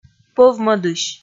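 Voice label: "пӧв-мӧдысь", Komi, female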